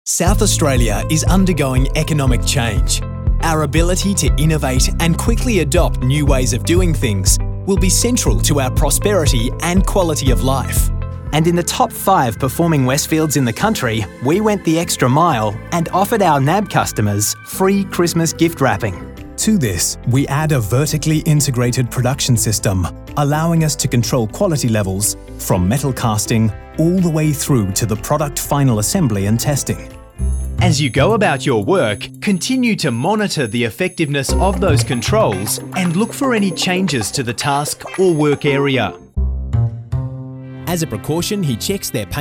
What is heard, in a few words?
Narration